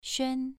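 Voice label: "xuan2", Chinese pronunciation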